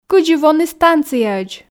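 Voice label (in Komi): кыдзи воны станцияӧдз, Komi, female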